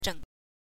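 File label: "zheng", Mandarin Chinese, neutral tone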